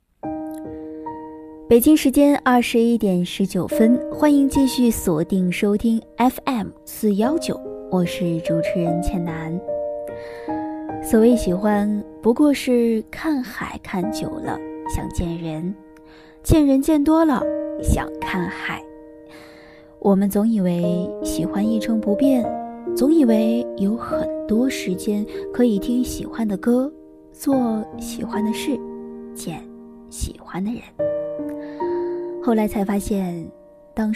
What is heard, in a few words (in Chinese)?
情感类配音作品, 女, 青年（18-35）, 普通话, 温柔甜美